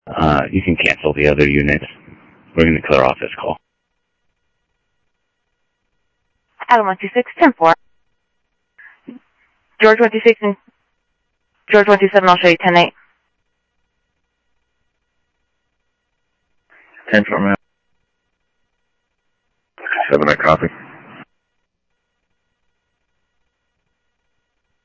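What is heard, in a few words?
Sheriff East County, scanner audio; South Scottsdale; MCSO - EAST Sheriff-EastCnty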